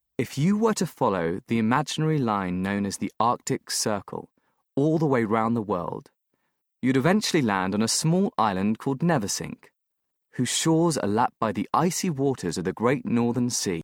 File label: VO Sample Narator; Voci Straniere